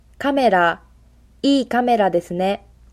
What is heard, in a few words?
camera, japanese